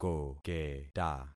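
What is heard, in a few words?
"koketa", concatenated syllables; Affixing experiment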